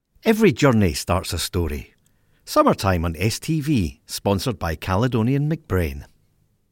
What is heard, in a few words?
Promo; STV